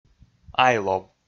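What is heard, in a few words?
"айлов", Komi, male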